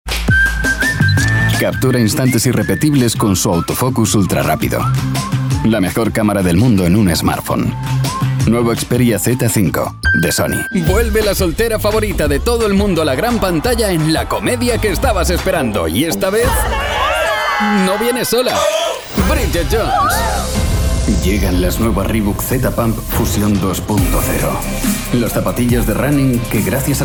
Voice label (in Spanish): Masculino